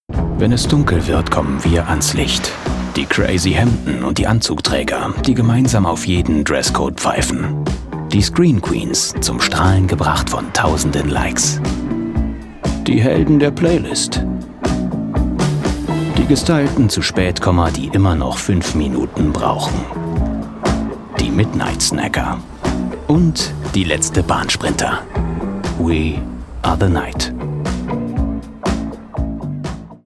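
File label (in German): Werbung, Freundlich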